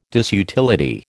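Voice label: "disutility", American English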